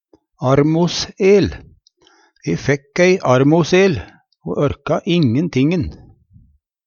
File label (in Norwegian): "armos'el", Numedalsmål